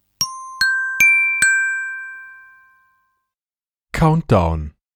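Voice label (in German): "Countdown", German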